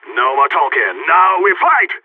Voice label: radio lock n load; agents; phoenix

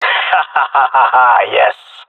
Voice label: cheer, jungle male; player vo; Counter Strike Model Pack; CS:GO

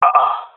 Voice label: negative, jungle male; vo; agents; Source Model Packs; Counter Strike Model Pack; CS2

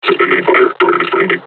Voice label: taunt, combine charger; Half Life Alyx

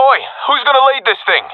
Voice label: request leader, professional epic; vo; Counter Strike Model Pack; CS:GO

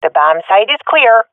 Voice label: bombsite clear, swat fem; vo; agents; Source Model Packs; Counter Strike Model Pack; CS2

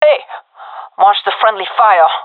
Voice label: taking fire friendly, fem

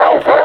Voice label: callout code alpha, combine suppressor; Half Life Alyx

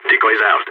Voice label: decoy, balkan

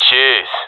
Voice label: thankful, professional; vo; Counter Strike Model Pack; CS:GO

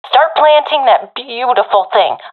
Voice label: friend plant bomb, professional fem; vo; Counter Strike Model Pack; CS:GO

